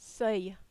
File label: "seuil", French